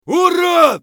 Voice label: bear battlecry; eft